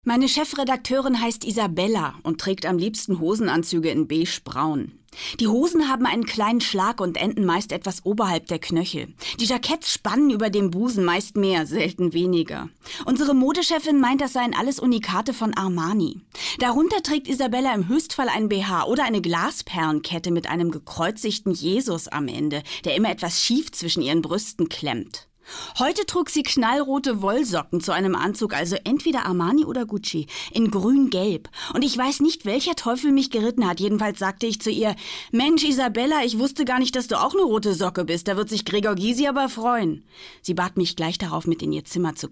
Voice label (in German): hoerspiel